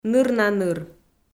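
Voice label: "ныр на ныр", Komi, female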